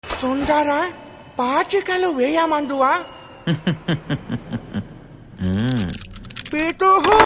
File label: Dialogue Ringtone, Telugu; Ante Sundaraniki; Pachikalu